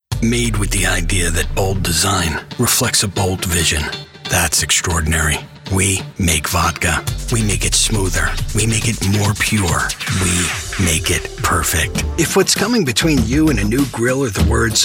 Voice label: English Commercial